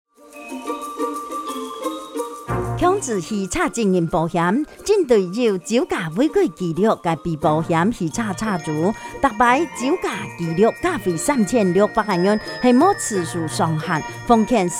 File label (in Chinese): 客語; 汽車族酒駕加費規定篇; 11月30日起，微型電動二輪車新車須投保強制險才能上路，現行已領有合格標章行駛上路的微型電動二輪車，應該在施行後2年內投保強制險。